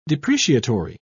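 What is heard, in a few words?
"depreciatory", English, British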